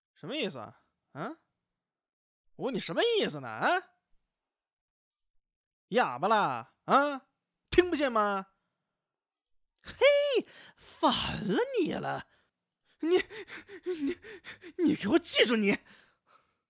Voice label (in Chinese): 嚣张角色